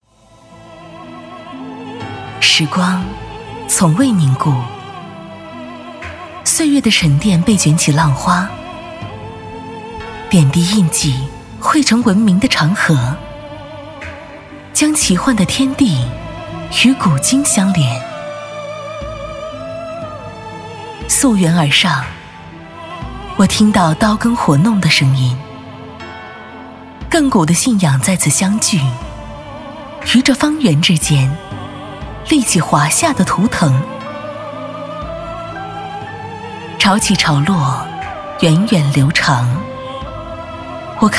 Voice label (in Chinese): 独白大气温柔; 溯源